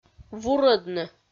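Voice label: "вурӧдны", Komi, female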